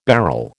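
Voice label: "bharal", American English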